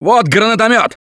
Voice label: grenade launcher, russian; Gambler